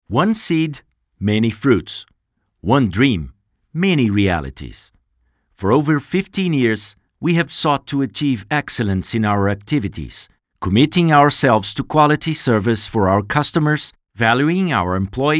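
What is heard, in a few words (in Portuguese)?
Inglês - América do Norte, Masculino; English Sample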